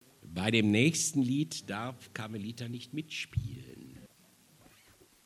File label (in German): ansage